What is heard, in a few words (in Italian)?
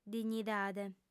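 "dignidade", lingua sarda, female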